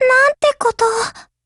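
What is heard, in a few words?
LOW MOOD Japanese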